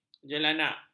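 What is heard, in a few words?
"jelanak", Malay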